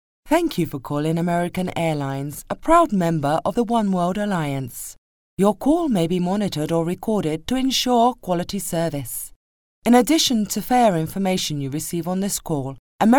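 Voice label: English british female; dictor; dictor-sounds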